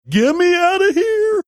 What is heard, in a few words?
get me outta here funny